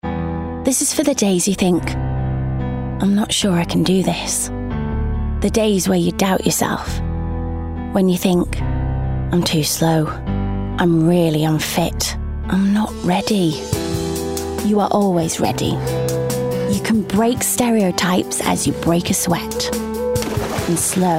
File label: Commercial; Nike Revolution